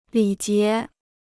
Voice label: "礼节", Chinese

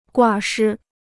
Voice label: "挂失", Chinese

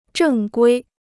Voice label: "正规", Chinese

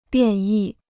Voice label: "变异", Chinese

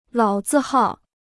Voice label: "老字号", Chinese

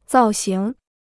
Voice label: "造型", Chinese

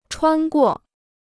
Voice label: "穿过", Chinese

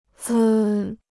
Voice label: "兹", Chinese

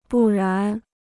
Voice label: "不然", Chinese

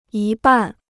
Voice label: "一半", Chinese

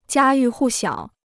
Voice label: "家喻户晓", Chinese

